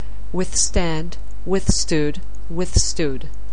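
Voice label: "withstand", English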